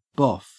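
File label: "boff", Australian English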